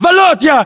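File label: "volodya", battlechatter, Russian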